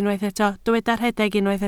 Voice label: "rhedeg", Welsh

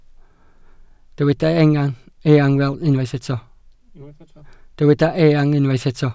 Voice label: "eang", welsh

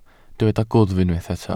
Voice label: "gwddf", Welsh

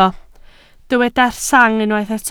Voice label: "sang", Welsh

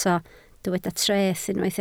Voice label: "treth", Welsh

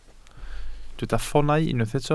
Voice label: "ffoonau", Welsh

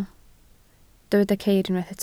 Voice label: "ceir", Welsh

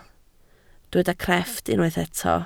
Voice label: "crefft", Welsh

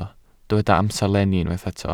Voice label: "amserlenni", Welsh

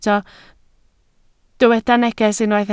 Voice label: "neges", Welsh

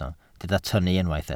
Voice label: "tynnu", Welsh

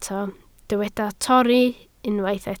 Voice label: "torri", Welsh

